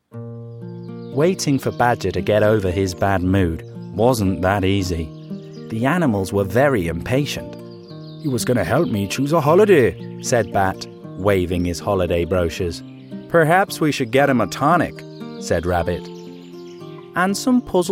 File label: narrative; badgers bad mood